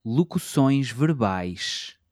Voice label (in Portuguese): "locuções verbais", Portuguese